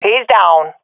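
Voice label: sees enemy killed, swat female; Counter Strike Model Pack; CS:GO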